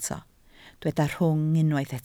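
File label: "rhwng" Welsh, spoken word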